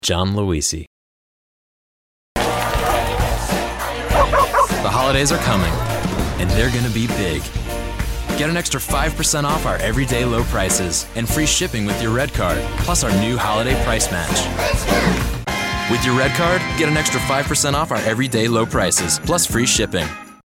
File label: commercial; Innovative LA